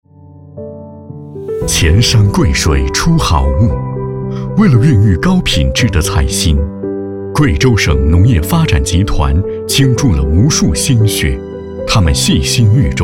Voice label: 男 品牌宣传; 贵州菜心

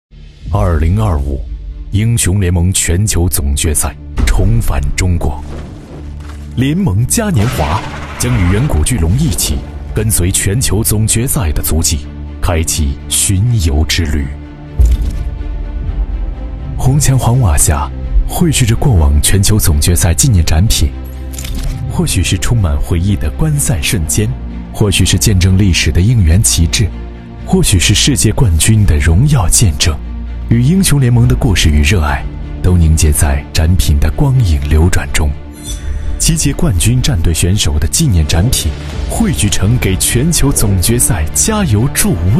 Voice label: 男 宣传; 英雄联盟

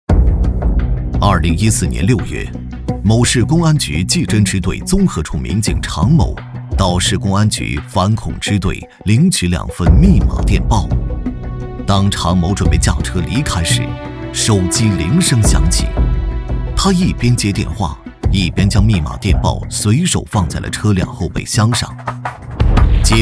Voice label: 男 专题 警示教育

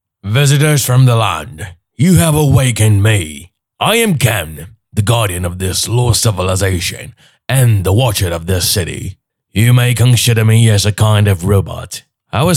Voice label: 男 英文角色; 失落的海底成-肯

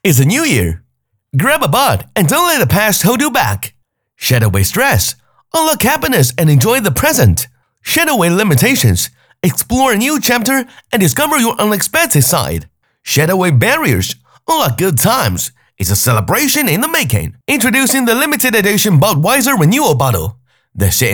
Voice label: 男 英文 广告 活力; 百威广告

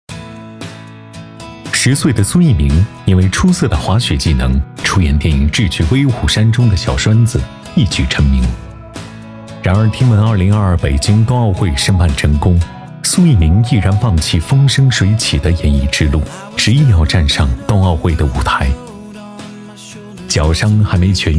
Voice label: 男, 人物纪录片

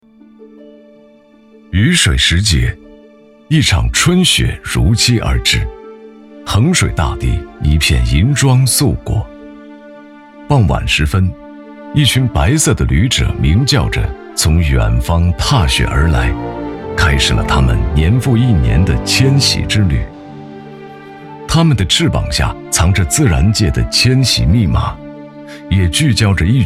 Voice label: 男 专题; 雨水时节

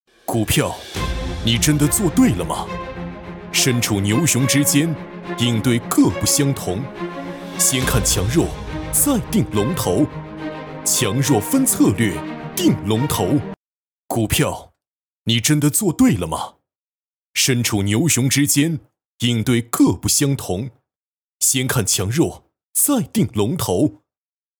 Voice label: 男, 专题; 股票

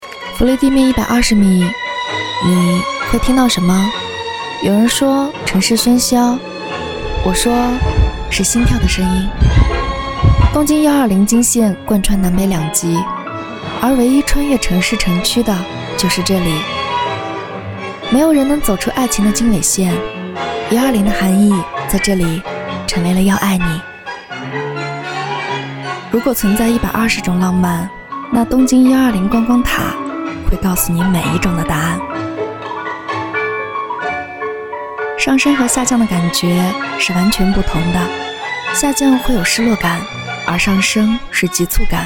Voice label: 女 素人

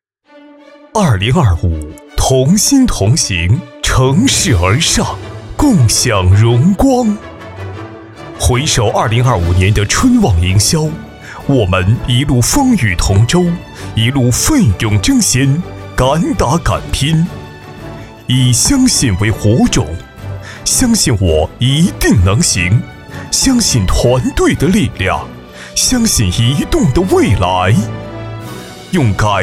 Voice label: 男, 专题 颁奖类

